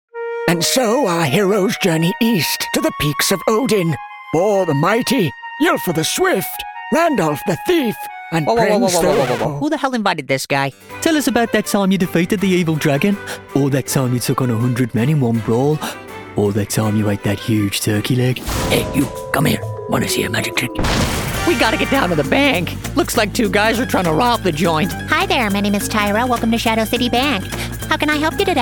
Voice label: animation